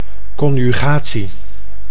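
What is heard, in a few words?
"conjugatie", Dutch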